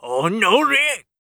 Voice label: oldman damage, voice

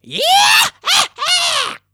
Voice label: voice berserk

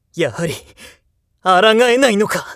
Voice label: jakigan down, voice

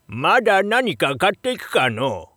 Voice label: shop oldman, voice

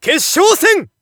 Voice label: Narration final; Shiai

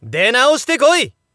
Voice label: Narration LOSE; Hanyou

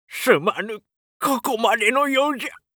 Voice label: oldman down, voice

